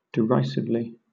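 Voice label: "derisively", British English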